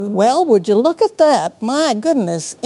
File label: happy, speech; ethel; fertig classic games